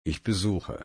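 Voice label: "besuchen", German, slow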